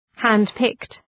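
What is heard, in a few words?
"hand picked", English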